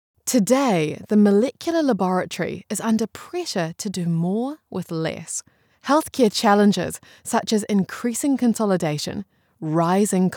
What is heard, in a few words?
english new zealand medical narration